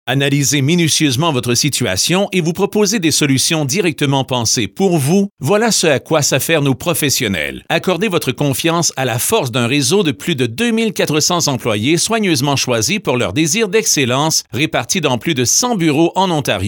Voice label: Fr-Ca corporate